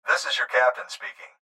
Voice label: Pilot Voice This is Captain